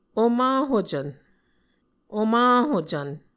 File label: "omaNhucvn", Muscogee; Mvskoke Words with Nasalized Vowels